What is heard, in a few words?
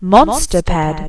monster pad, announcer